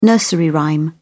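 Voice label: "nursery rhyme", English, British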